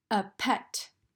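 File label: "A pet", English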